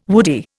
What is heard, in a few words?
"woody", Indian